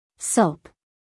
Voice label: "soap", US English, female